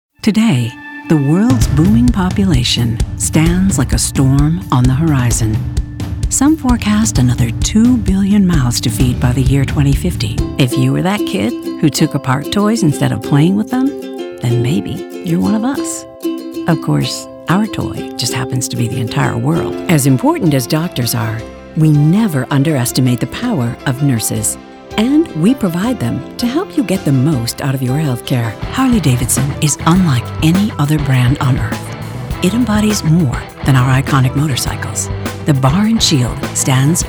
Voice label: Narration